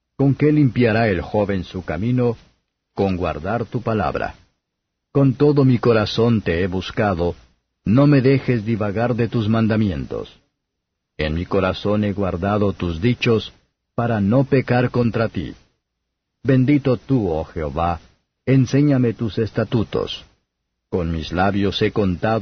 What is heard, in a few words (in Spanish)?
salmos spanish; 119; bet